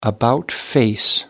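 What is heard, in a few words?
"about face", English, British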